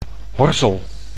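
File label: "horzel", Dutch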